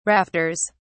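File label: "rafters", British English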